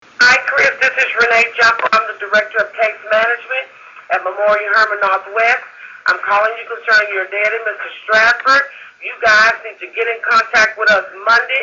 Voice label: voicemail